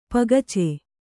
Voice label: "ಪಗಚೆ", Kannada